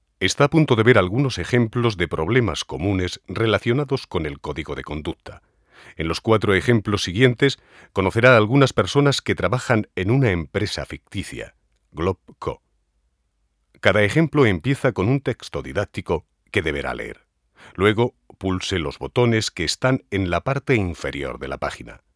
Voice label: elearning